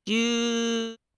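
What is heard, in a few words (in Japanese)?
"りゅ", Japanese syllable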